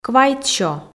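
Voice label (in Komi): "квайтсё", Komi, female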